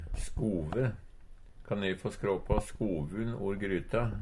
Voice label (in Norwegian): "skove", Numedalsmål; Substantiv hokjønn; Hushald, mat, drikke